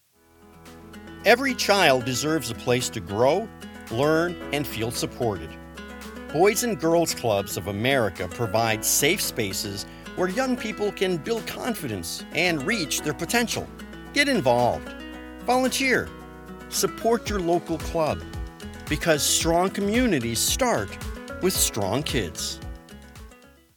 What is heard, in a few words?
PSA Boys and Girls